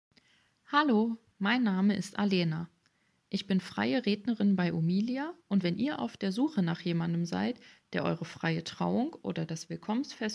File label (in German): Stimmprobe Freude